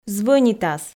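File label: "звӧнитас", Komi, female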